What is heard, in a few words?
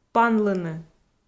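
"панлыны", Komi, female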